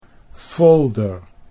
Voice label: "folder", Dutch